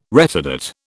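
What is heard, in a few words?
"retardate", British English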